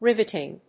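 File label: "riveting", English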